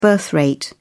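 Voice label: "birth rate", British English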